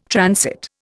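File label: "transit", Indian English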